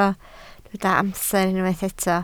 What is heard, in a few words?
"amser", Welsh